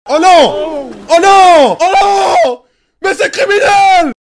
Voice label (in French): oh non mais c'est criminel